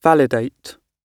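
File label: "validate", British English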